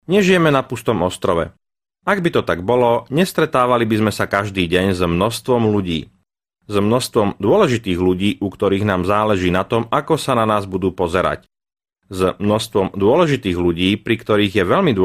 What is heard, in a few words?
Slovakia male; lektoring